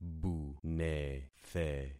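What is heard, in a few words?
"bunethe", concatenated syllables; Affixing experiment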